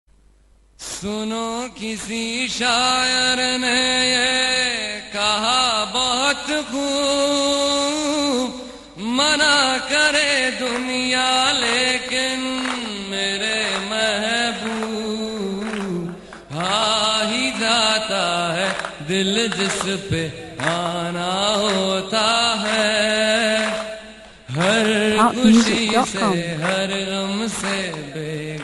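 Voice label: Voice, Spanish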